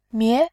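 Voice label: "mie", Mandarin, tone 2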